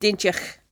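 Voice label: "daintyoch", Cromarty Fishertoun dialect; [dEYN-tee-yock]; nice, particular; Word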